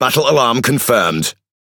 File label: Pirate message execute order; PVE; World Of Warships